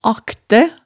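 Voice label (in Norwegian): "aktæ", Hemsedal dialect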